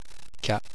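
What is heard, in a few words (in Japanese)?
"kya", Japanese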